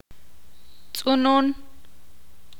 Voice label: ixil; colibri